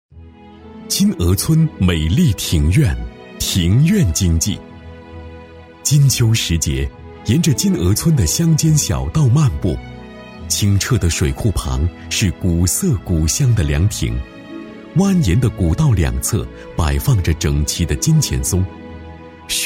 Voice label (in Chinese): 男 专题配音 大气温暖; 金蛾村; 男70-大气主流